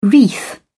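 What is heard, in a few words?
"wreath", English, British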